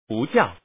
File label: Man, lord